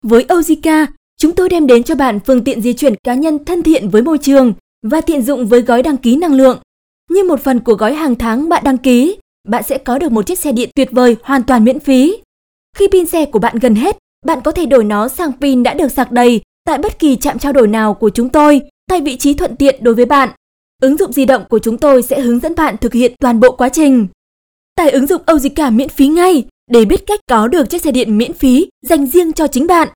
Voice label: Vietnamese female

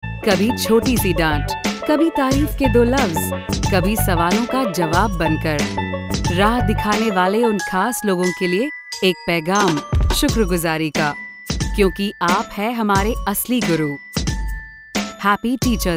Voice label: Urdu female

